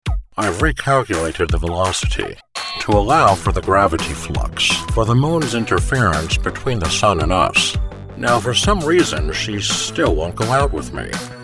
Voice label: Animation